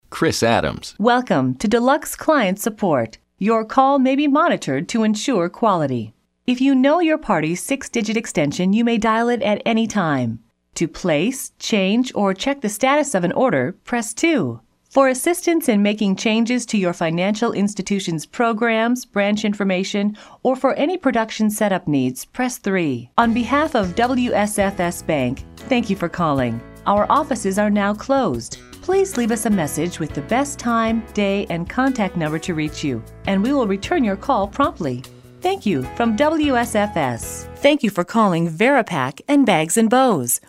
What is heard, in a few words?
IVR